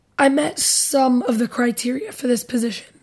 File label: interviewee female